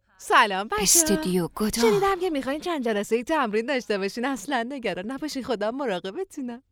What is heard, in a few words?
dub